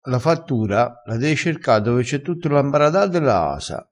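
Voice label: "ambarada", Italian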